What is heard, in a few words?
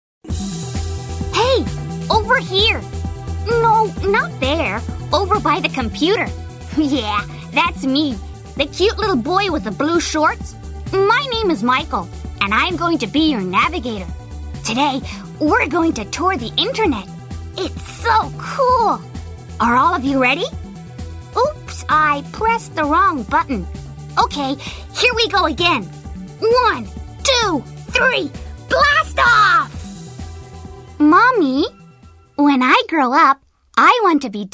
Character Voice; USA